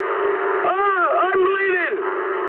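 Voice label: damaged pilot mood high, speech English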